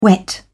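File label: "whet", British English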